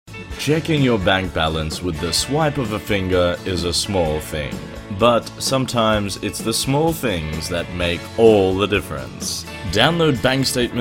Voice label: 外籍英男 手机软件宣传片 慵懒

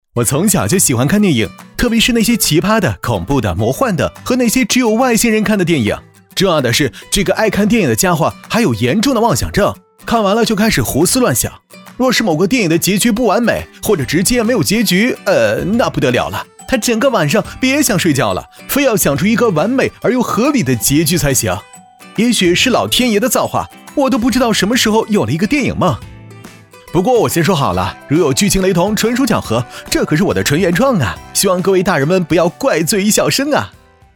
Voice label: 男-电视节目; 爱看电影

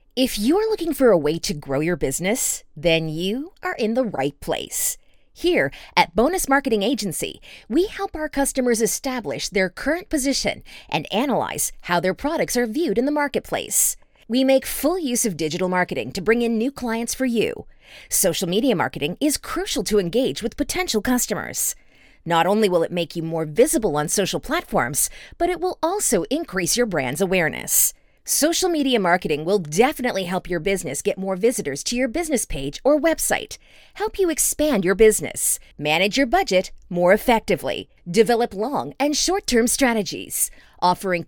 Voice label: 商业分析机构 宣传片

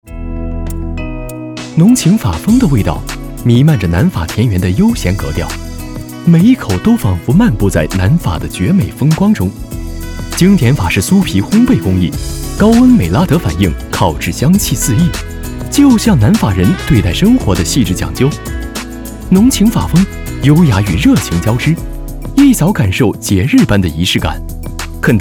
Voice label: 男 品质广告 浓情法风的味道

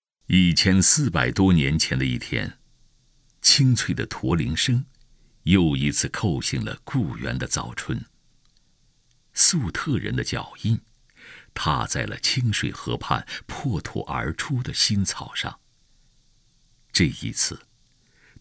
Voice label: 男 纪录片; 丝绸之路

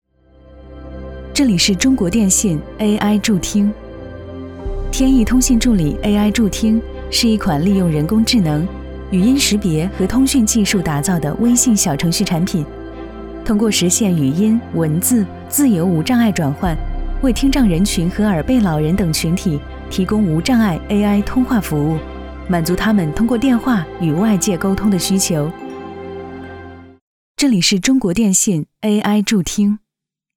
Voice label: 女 专题科技类 知性自然

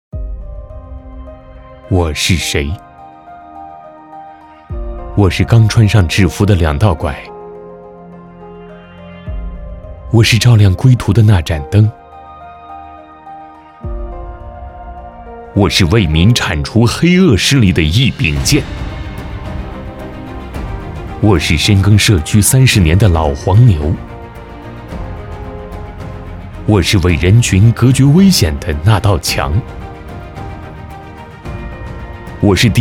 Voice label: 男 独白; 我是谁

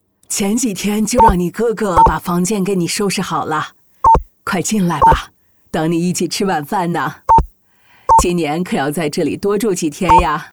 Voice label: 老奶奶角色试音

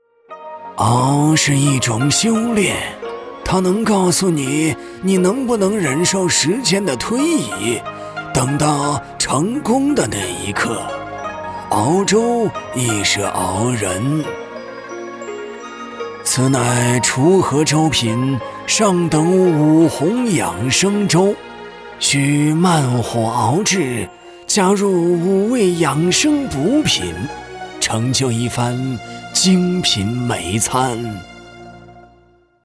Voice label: 男 游戏 老人角色

